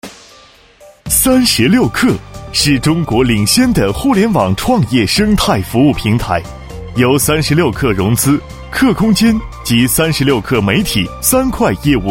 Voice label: 男 专题 讲解; 36氪

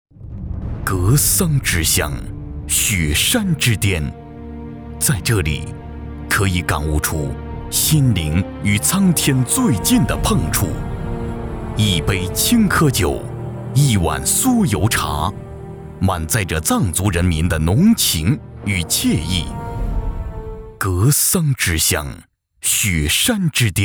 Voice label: 男 专题 藏族专题

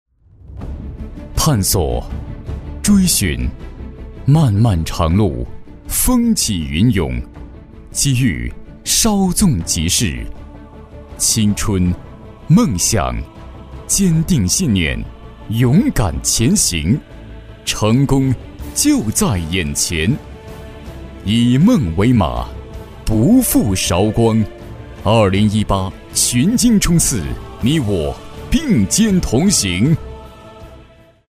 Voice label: 男, 专题; 探索宣传

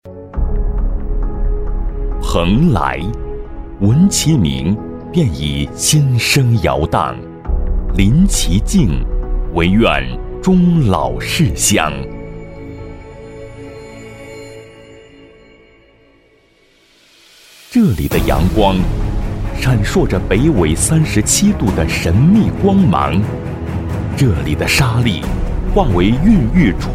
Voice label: 男 专题 大气宣传

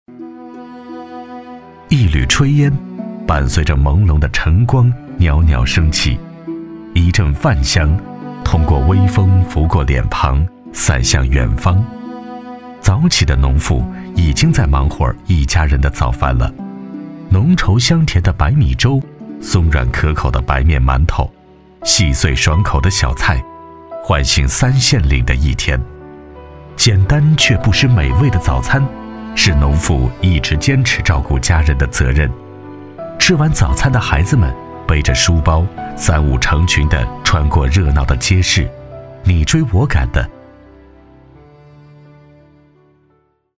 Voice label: 男 专题 唯美 讲述; 秀美乡村——三县岭景区

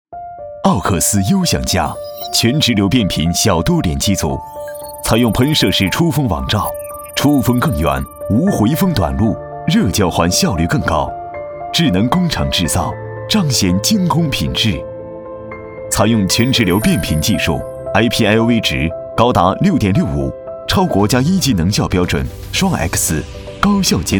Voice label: 男, 专题

